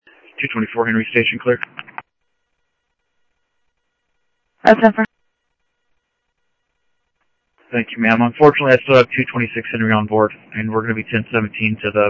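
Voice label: South Scottsdale Sheriff East County, scanner audio trunk; MCSO - EAST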